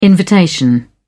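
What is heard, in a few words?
"invitation", British English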